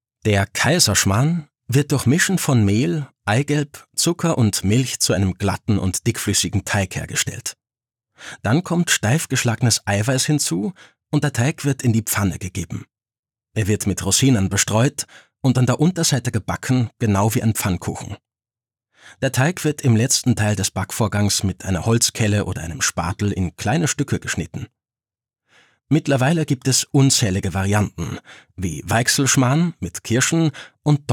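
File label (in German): Oesterreichisch, Audioguide, Comment (Kommentar), Tutorial, Austrian, Wienerisch; Kaiserschmarrn; Österreichisch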